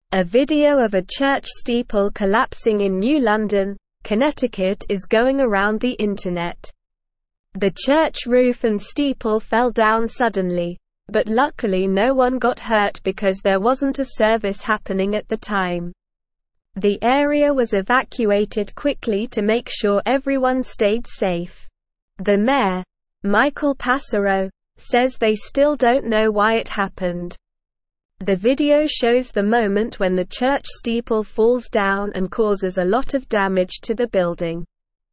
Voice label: English Upper Intermediate SLOW Reading; English Newsroom; Church roof and steeple collapse in New London; A video of a church steeple collapsing in New London, Connecticut is going around the inte

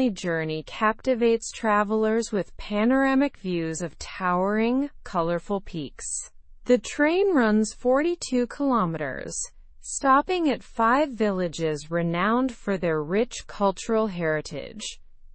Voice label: learn english through news upper intermediate; ride argentina s eco friendly solar powered train; slow

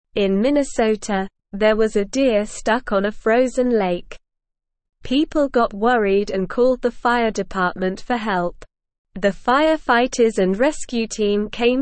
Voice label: English Newsroom Upper Intermediate SLOW Reading; Firefighters Rescue Stranded Deer on Frozen Lake

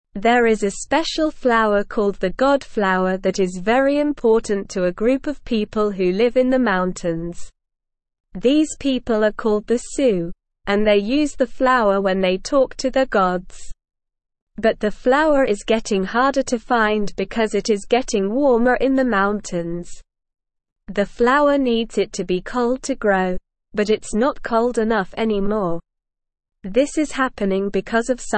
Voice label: Beginner SLOW Reading, English; The Special God Flower Help Us Save It

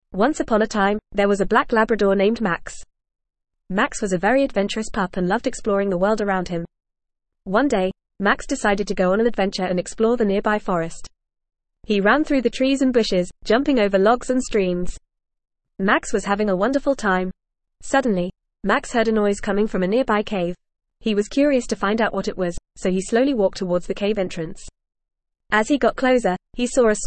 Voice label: ESL Short Stories for Kids FAST reading; The Adventure of the Black Labrador

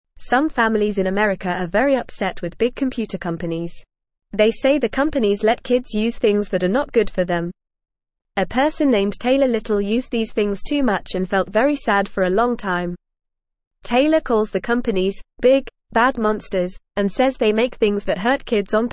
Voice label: English Newsroom Beginner FAST Reading

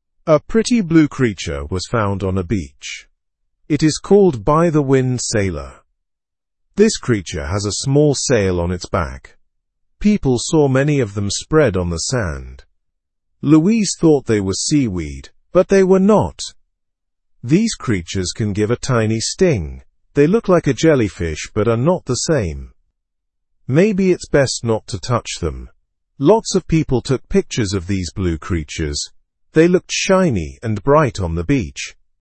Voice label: learn english through news beginner normal; blue sea creatures wash up on beach

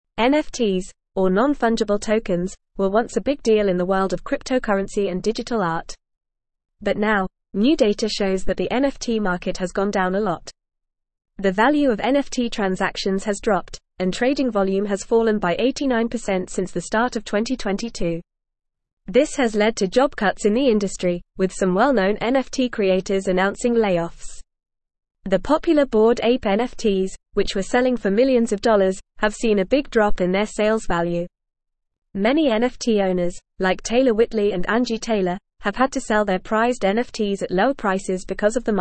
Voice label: English Upper Intermediate FAST Reading; NFT Market Sees Significant Decline Potential for R; English Newsroom

